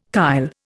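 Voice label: "kyle", Indian English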